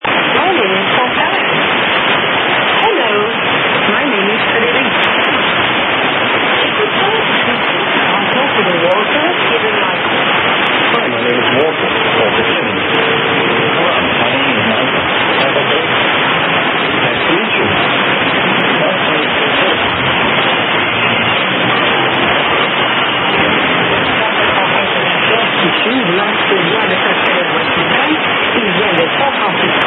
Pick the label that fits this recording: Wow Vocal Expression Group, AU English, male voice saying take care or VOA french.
VOA french